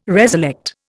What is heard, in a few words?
"reselect", Indian